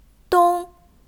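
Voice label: "dong1", Chinese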